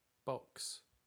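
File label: "box", English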